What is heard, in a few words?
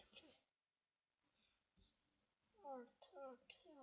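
"lute", spoken word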